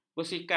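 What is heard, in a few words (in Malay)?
"bersikap", Malay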